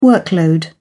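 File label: "workload", British English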